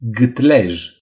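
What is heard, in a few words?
"throat", Romanian; gcompris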